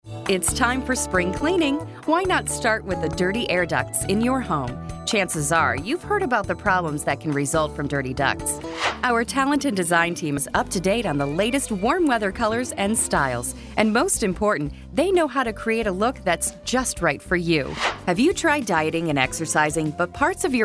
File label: female